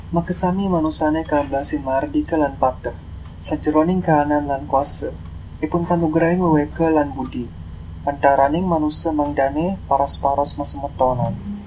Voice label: balinese